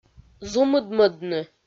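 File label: "зумыдмӧдны", Komi-Zyrian, female; audio dict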